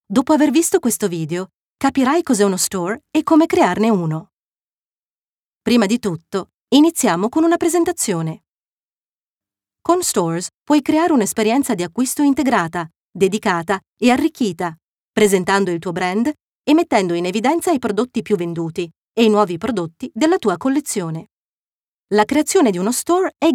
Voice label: italian training e-learning